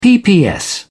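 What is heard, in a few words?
"pps", English, British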